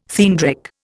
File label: "theandric", Indian